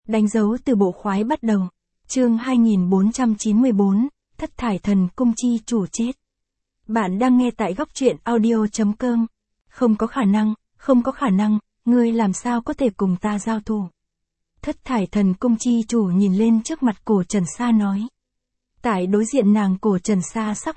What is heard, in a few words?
vi-VN Standard; danh dau tu bo khoai bat dau